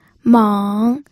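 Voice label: "meng", third tone, pinyin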